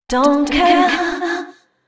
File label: female 130, Dance Ultra Voice, short hooks